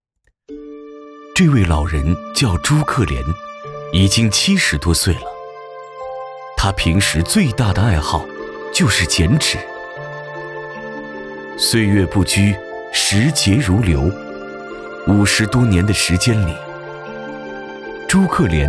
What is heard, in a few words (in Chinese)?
男 纪录片